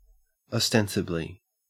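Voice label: "ostensibly", Australian English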